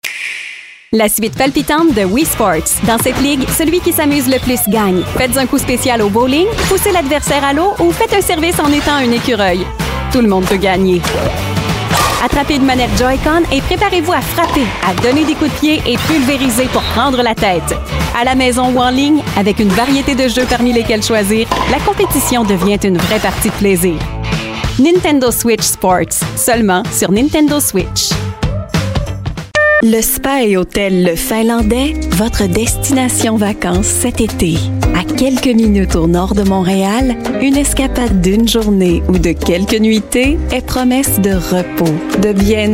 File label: french voice over female commercial